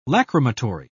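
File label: "lachrymatory", British English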